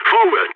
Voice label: radio lets go, balkan; Counter-Strike Global Offensive